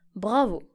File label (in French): "bravo", fr